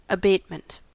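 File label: "abatement" US English; pronunciation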